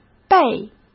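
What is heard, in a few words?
"背", Mandarin Chinese; hsk; chinese-flashcards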